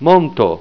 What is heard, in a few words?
"monto", esperanto